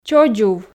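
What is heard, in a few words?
"чодзув", Komi, female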